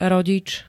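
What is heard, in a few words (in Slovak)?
"rodič", Slovak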